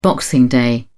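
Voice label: "boxing day", English, British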